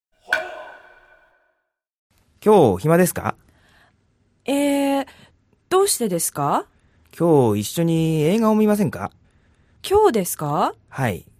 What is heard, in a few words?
dialog; JapanesePod101; Beginner Season 1